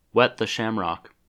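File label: "wet the shamrock", US English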